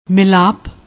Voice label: "milaap", Punjabi

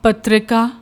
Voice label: "patrika", Punjabi

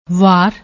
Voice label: "war", Punjabi; ਵਾਰ

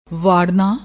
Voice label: "warnaa", Punjabi; ਵਾੜਨਾ

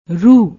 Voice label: "rooh", Punjabi

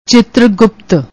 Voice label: "chitargupt", Punjabi

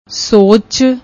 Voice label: "ਸੋਚ", Punjabi

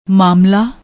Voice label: "maamla", Punjabi

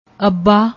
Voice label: "abba", Punjabi

